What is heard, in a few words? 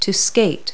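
"skate", verb; Multi-Lingual Talking Picture Dictionary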